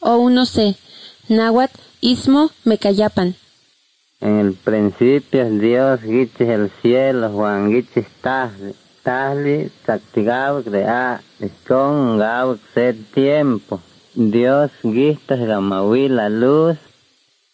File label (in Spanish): spanish mexico